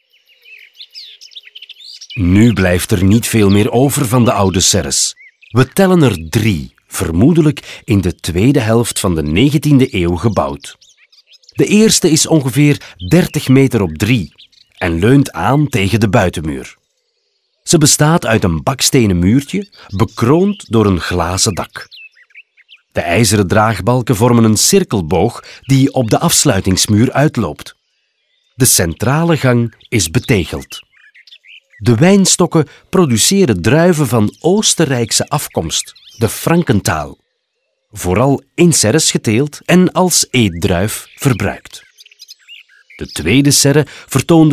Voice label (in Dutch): audioguide nl enfant; modave